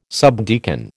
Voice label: "subdeacon", American

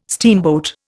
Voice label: "steamboat", Indian

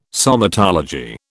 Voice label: "somatology", British

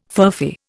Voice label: "furphy", Indian

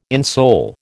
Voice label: "insole", American

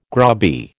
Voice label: "grubby", American

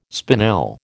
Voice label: "spinel", American

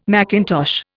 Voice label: "macintosh", Indian

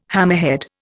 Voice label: "hammerhead", Indian

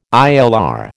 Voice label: "ILR", American

